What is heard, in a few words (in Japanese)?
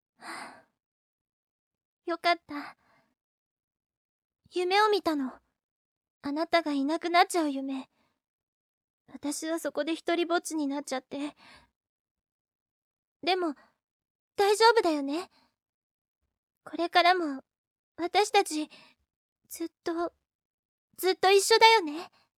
ヒロイン系女の子